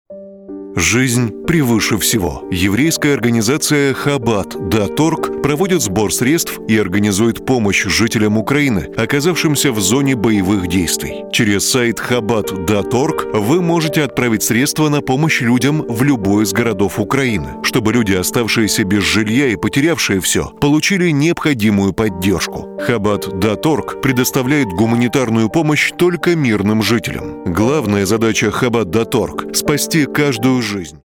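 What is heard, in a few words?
Russian, male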